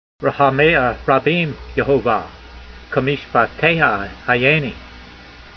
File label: voice, hebrew language; Psalm 119:156; rahameyha rabeem yehovah, ke'meeshpateyha hayaynee